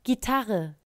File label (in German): "Gitarre", Deutsch